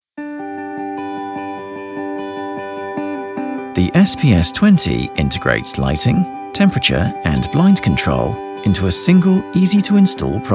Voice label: corporate video voice over young UK male voice over; Simmtronic SPS.20